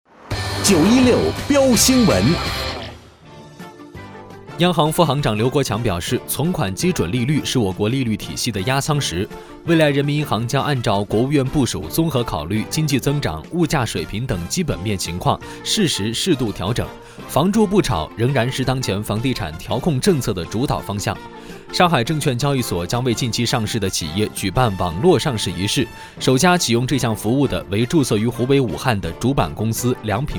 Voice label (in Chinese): 新闻; 飙新闻